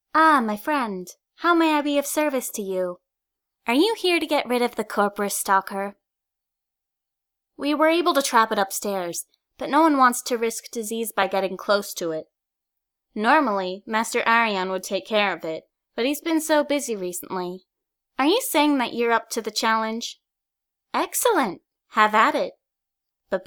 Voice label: bosmer audition, wood elf voice auditions